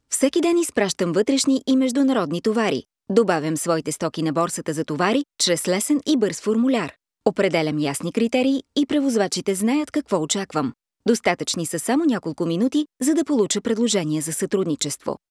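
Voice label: Bulgarian, narration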